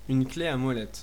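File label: wrench adjustable desc, French; tuxpaint-stamps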